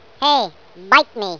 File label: An elf voice saying "Hey. Bite me."